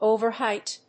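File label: "over height", English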